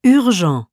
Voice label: urgent, French